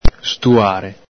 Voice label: "stuare", Veneto